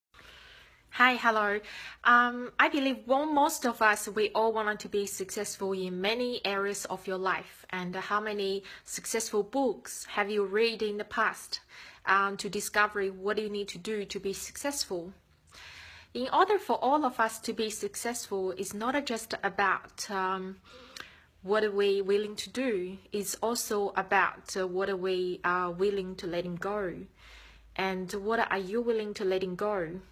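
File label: private Chinese accent before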